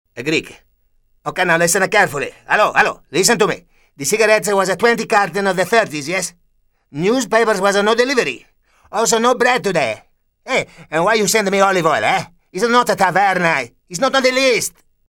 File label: GREEK